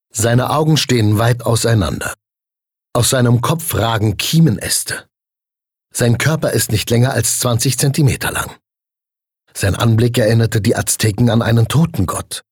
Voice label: Dokumentarischer Text

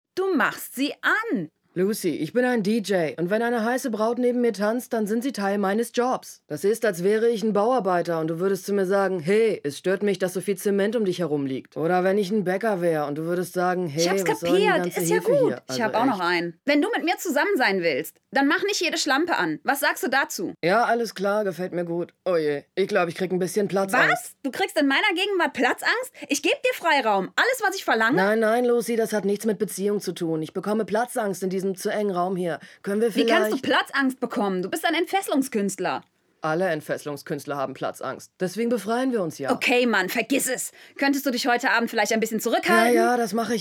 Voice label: hoerspiel u. synchron

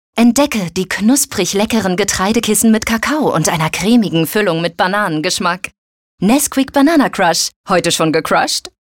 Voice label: Werbung TV; BananaCrush

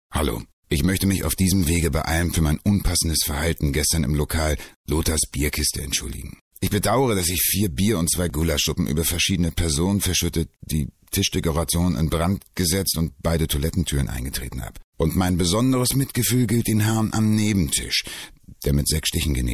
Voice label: szene, Scene, Nord

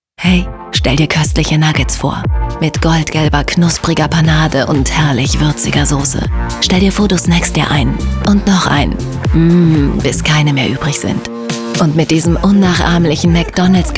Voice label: Funk Werbung Stimmprobe; McDonalds

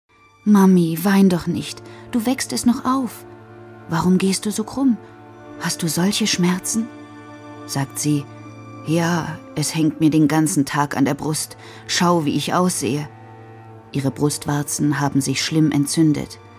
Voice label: Hörbuch

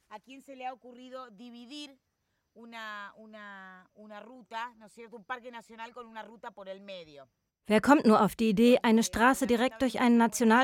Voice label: Doku Over Voice; LOFT VOICES

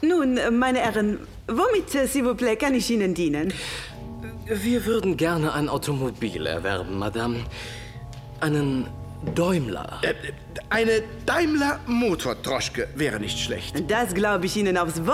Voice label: Hoerspielrolle; SherlockHolmes; Madame Roger

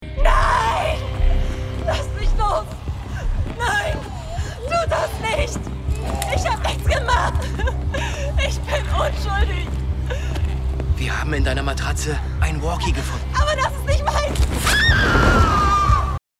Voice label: Synchron Drama Horror Serie panisch Todesangst

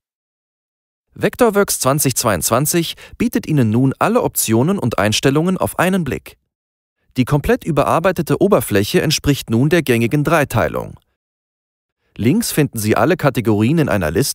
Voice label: E Learning Planzeichnungssoftwareupdate Fenster Tueren; Vectorworks 2022

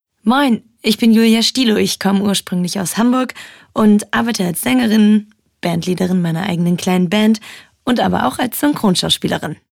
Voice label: Vorstellung DE, Natürliche Stimme, German; voice

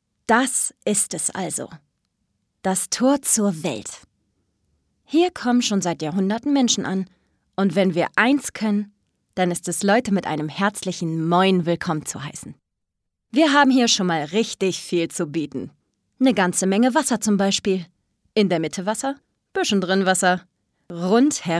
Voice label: Imagefilm, German; Audioguide, Comment (Kommentar), Commercial

